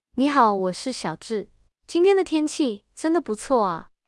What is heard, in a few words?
fishspeech stream, 本地TTS; 小智AI服务端; 低延迟小智AI服务端搭建-本地TTS篇：fishspeech流式推理